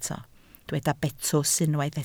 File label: "betws", Welsh